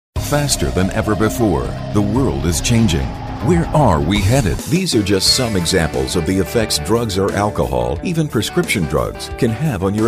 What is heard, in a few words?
english male